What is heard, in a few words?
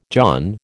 "john", American